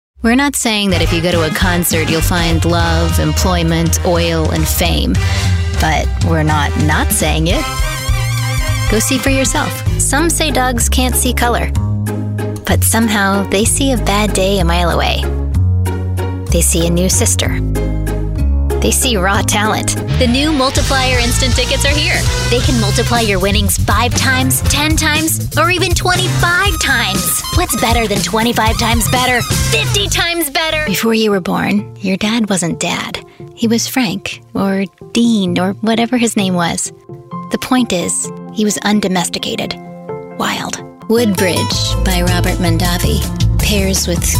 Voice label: Commercial